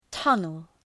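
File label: "tunnel", English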